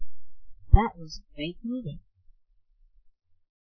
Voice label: Kid; Fat Penguin